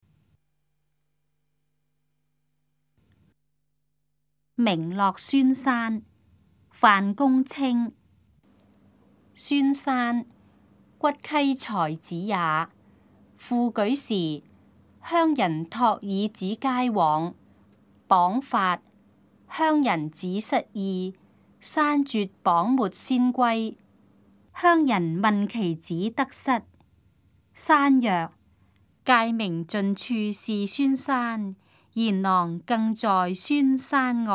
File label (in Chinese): cantonese; 名落孫山; 粵語